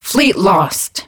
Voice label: advisor notification fleet destroyed; Stellaris; ethics; xenophile

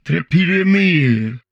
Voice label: humanoid portrait male; Stellaris; humanoids

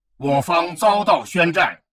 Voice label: advisor notification enemy declared war, chinese; Stellaris

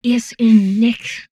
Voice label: humanoid female; Stellaris

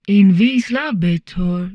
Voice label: humanoid portrait female; Stellaris; humanoids

